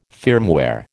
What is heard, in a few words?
"firmware", American